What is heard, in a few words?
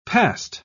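"passed", British English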